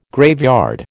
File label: "graveyard", American